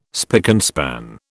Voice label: "spick and span", British